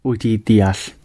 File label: do you understand, gwentian